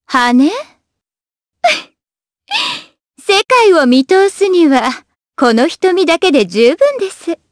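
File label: vox dialogue, voices, Japanese; Shamilla; kingsraid audio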